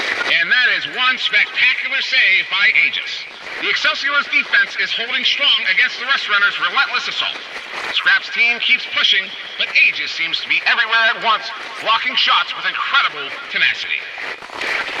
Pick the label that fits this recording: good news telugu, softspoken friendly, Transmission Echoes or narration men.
Transmission Echoes